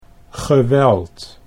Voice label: "geweld", Dutch